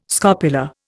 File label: "scopula", Indian